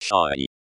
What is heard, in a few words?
Afaan Oromo, shayi; thee-in-andere-talen